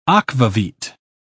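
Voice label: "akvavit", British English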